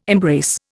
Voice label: "embrace", Indian